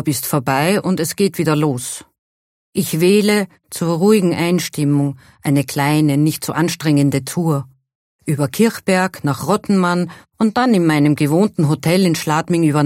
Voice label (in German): Prosa Geschichte ohne Musik